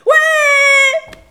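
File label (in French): "ouais" French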